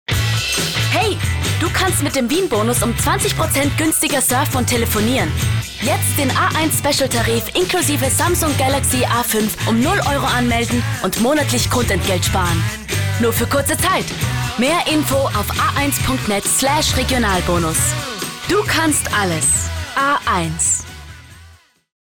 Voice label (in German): Werbung